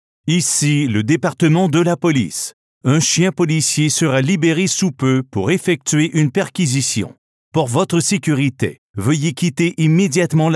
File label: Police Canine Search (French Canadian); Pathfinder